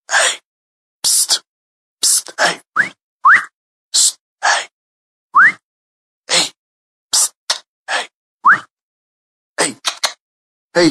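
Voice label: Funny Psssssst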